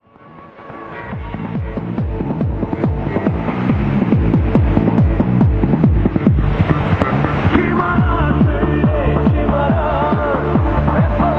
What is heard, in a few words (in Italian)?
15375 kHz, turco; Voice of Turkey; Degen DE 1103; filo 2m indoor; St. Ursen